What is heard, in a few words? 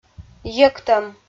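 "йӧктӧм", Komi, female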